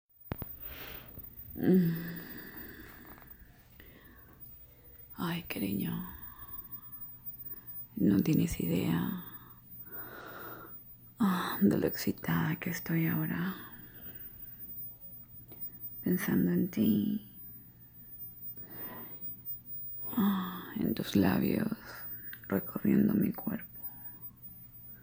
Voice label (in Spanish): Mensaje De Voz